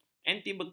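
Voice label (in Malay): "antibeku", Malay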